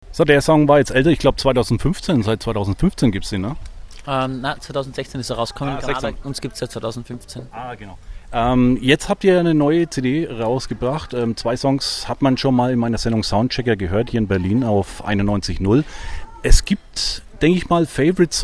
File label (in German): ANSAGE Mued vom tanzn